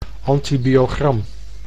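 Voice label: "antibiogram", Dutch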